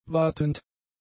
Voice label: "watend", German; adjectives